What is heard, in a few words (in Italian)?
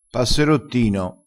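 "passerottino", dialettale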